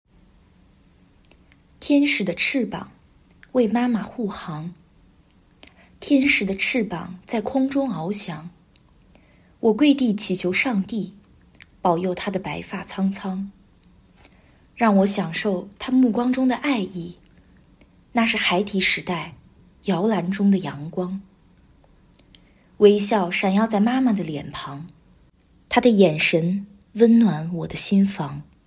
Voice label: chinese; Kinų (CHN)